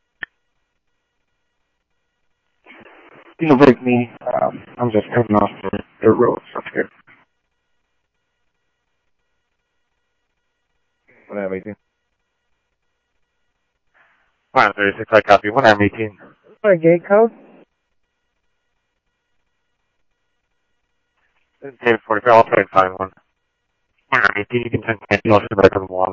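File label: Mesa Police Department, scanner audio trunk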